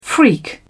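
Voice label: "freak", British English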